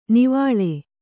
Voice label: "ನಿವ್ವಳ", Kannada

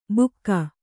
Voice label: "ಬುಕ್ಕ", Kannada

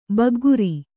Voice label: "ಬಗ್ಗರೆ", kannada

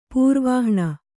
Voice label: "ಪೂರ್ವಾಹ್ಣ", Kannada; pūrvāhṇa; noun; the fore-noon.

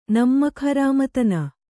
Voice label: "ನಿಮ್ಮಕುಹರಾಮತನ", Kannada

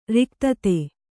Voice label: "ರಿಕ್ತತೆ", Kannada

